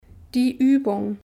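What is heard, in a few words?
"die Uebung", German; Kapitel 2; Alphabet erste Schritte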